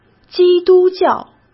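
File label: "基督教", Mandarin Chinese; hsk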